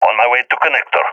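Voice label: on my way connector, balkan; balkan epic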